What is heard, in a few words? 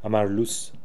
"Amarloos", Beelzebub pronounciations; Fourth Way